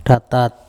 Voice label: "Thatat", Andamanese; my tongue